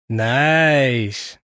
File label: "Niiiice", Male, exclamation; Voice Box